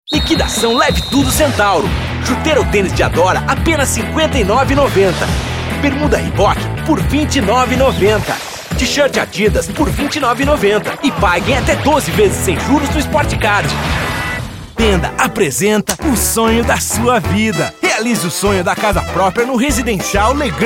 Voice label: Repertório Varejo Vendedor

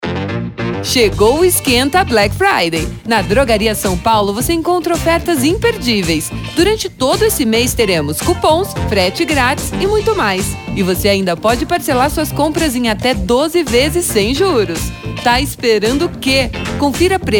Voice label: Repertório locução promocional e varejo

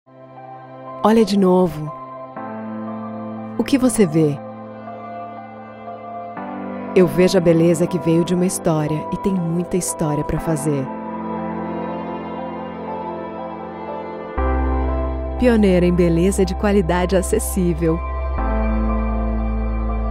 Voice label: Institucional; Avon

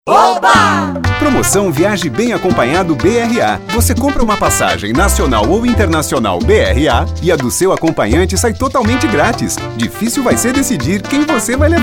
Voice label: Promocional

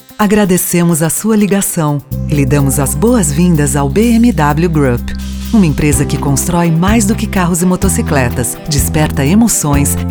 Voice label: Espera Telefônica

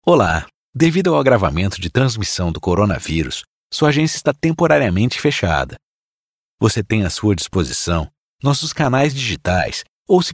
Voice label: Repertório URA Espera Telefônica

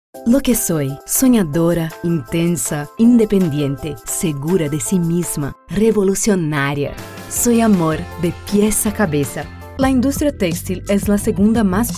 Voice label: Espanhol

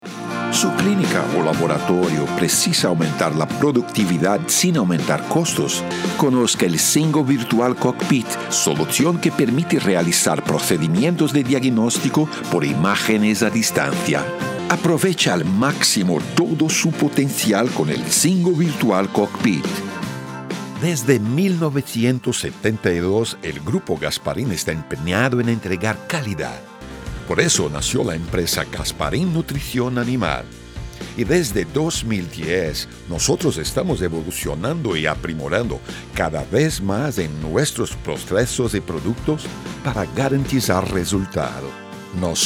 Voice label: repertorio espanhol

